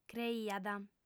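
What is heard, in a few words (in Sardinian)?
"creiat", female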